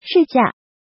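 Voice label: "Shìjià", Chinese; Tu vung nghi phep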